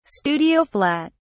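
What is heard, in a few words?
"studio flat", English, uk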